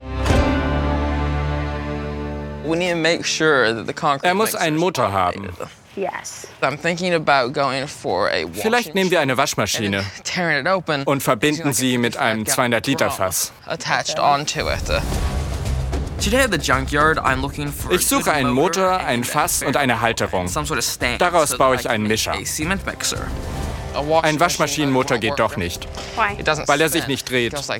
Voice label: VoiceOver